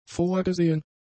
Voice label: "vorgesehen", German; adjectives

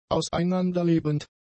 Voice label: "auseinanderlebend", German; adjectives

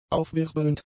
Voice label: "aufwirbelnd", German; adjectives

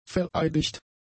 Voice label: "vereidigt", German; adjectives

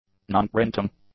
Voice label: "nonprinting", english; adjectives

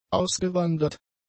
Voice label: "ausgewandert", German; adjectives

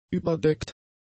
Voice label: "überdeckt", German; adjectives

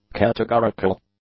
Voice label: "categorical", English; adjectives

